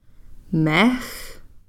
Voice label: "meex", Cow Creek; crane